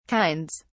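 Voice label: "kinds", British English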